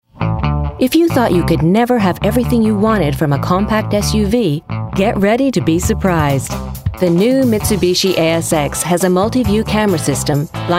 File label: Commercial; Mitsubishi; Commercial Showreel